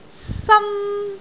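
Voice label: "san1", Cantonese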